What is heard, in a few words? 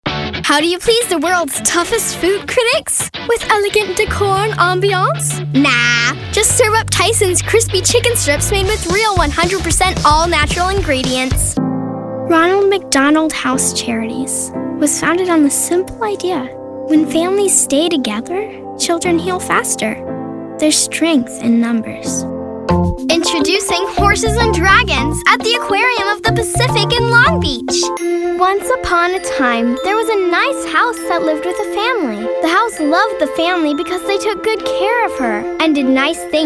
Commercial